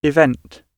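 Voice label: "event", British English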